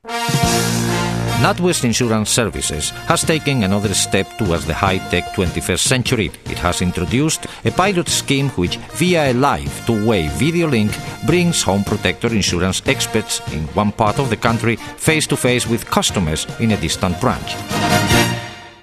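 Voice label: Spanish English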